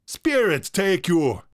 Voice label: vocal witch doctor dialogue spirits take you male dry; esmteam